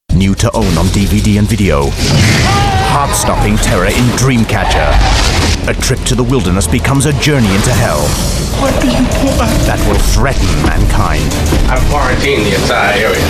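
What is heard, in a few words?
English UK, Commercial; GoLocalise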